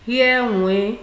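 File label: "hear wen", Nigerian Pidgin, female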